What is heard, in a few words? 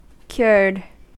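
"cured", US English; pronunciations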